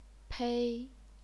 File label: "pei1", chinese pinyin